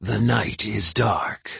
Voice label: dark army archer taunt

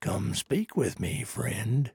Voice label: trader male like greeting

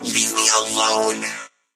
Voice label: hurt vo; edgar

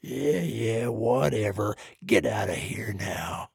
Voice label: trader male neutral quest declined

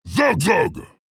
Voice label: Warsong Grunt, zh

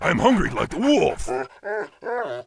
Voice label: Wolf rider pissed

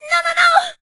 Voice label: hurt

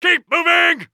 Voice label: uk positive

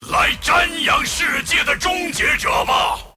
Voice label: move, zh

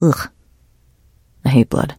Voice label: trader monolog; 七日杀; 商人杰恩

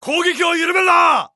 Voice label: Jp Positive

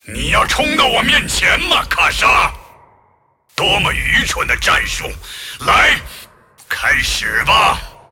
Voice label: move, zh

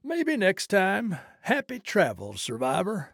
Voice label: trader male like sale declined

